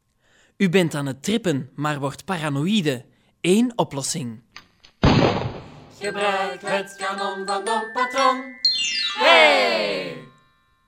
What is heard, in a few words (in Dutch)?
Reklamespotje